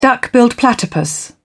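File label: "duck-billed platypus", British English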